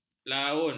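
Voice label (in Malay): "laun", Malay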